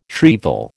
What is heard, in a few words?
"shrieval", American